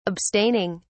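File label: "abstaining", British English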